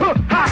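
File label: "huh huh", vocal sample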